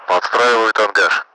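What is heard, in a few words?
Russian speech, Mi-8MT; Messages adjusting pitch channel; DCS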